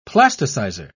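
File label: "plasticizer", British English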